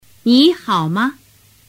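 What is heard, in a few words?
"nihaoma", Mandarin Chinese; tu moi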